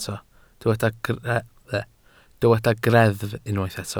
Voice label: "greddf", Welsh